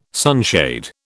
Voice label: "sunshade", British

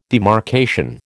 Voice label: "demarcation", American

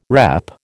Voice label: "repp", American

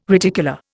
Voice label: "reticula", Indian

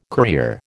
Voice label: "courier", American

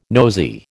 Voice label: "nosey", American

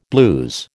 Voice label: "blues", American

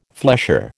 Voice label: "flesher", American

